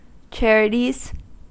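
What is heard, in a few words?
"charities", US English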